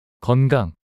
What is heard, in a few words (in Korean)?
"건강" 단어 남자